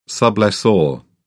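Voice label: "sublessor", British English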